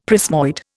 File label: "prismoid", Indian; Dictionary